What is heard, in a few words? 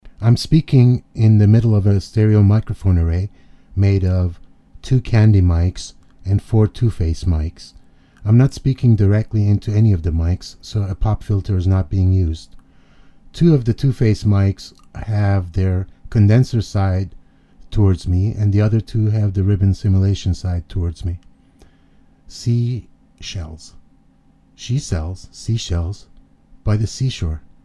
back speech